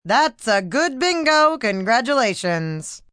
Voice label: Good Bingo, Bingo, female: 'That's a good Bingo, Congratulations', (human voice speaking); Talking Sound Effects